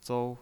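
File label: "zou" Mandarin Chinese pinyin voice, first tone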